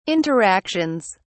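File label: "interactions", British English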